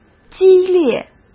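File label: "激烈", Mandarin Chinese; chinese flashcards; hsk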